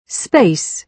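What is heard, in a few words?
"space", British English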